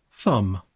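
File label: "thumb", English; uvoiced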